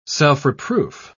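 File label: "self-reproof", British English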